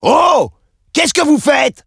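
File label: Needmore French; wii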